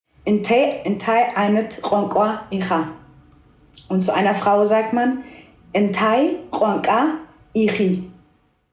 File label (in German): tigrinya eritrea